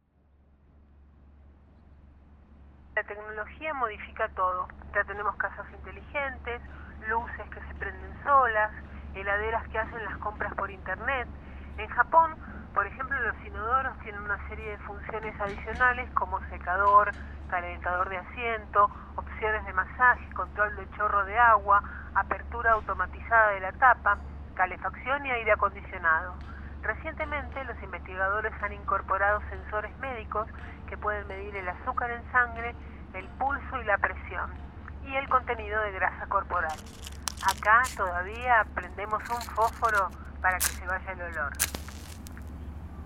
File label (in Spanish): monologo sobre tecnologia voz filtrada